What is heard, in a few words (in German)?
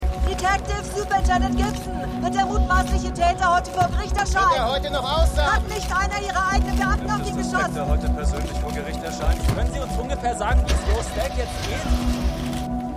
Reporterin Reporter; The Fall